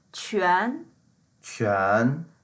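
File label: "quan2", Mandarin Chinese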